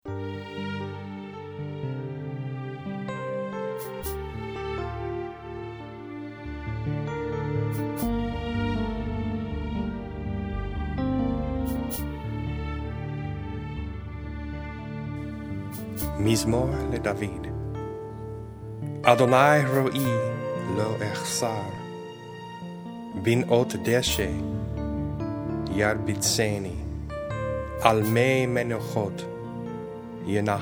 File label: Psalm 23 Narration